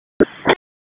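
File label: Raleigh Police Southeast District Dispatch, p25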